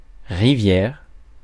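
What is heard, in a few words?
"rivière", French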